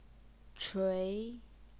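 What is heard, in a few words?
"chui", second tone, Chinese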